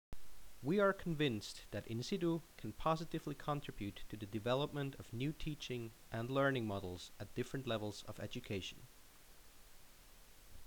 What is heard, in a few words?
Narration